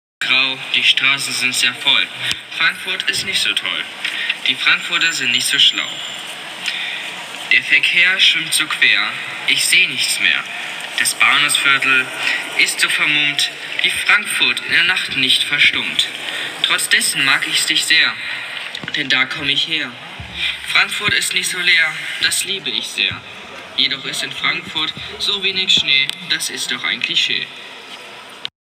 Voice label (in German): Audio Gedicht